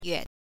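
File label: "yue", Mandarin Chinese, neutral tone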